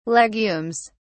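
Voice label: "legumes", British English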